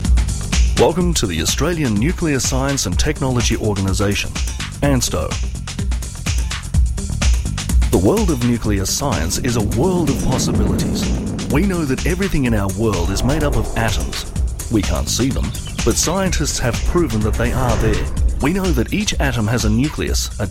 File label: Voice Narration